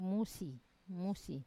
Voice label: "musi", Wamesa